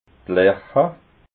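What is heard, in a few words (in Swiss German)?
"larcha", Alsatian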